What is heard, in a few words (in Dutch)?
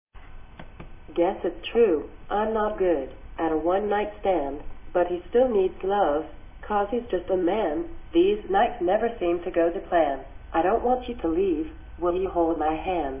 Stay with me uitspraak